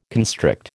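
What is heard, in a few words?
"constrict", American English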